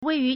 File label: "wei4yu2", Chinese